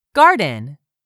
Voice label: "garden", English; 3000 words

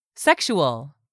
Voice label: "SEXUAL", english; 3000 words

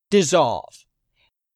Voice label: "DISSOLVE", English; 3000 words

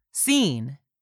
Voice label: "SCENE", english; 3000 words

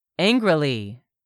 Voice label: "angrily", English; 3000 words

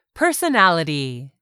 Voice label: "PERSONALITY", English; 3000 words

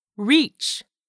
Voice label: "reach", English; 3000 words

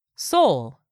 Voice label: "soul", english; 3000 words

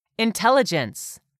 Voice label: "intelligence", English word; 3000 words

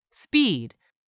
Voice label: "SPEED", english; 3000 words

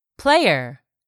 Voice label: "player", english; 3000 words

